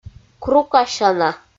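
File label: "крукасяна", Komi, female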